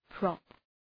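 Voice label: "prop", English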